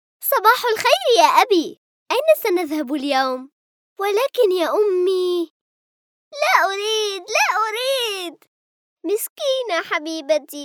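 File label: arabic female character, voice demos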